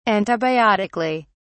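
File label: "antibiotically", English, British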